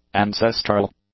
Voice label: "ancestral", English; adjectives